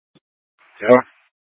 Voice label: Police Dispatch; Paradise Valley Police; Simulcast H- Scottsdale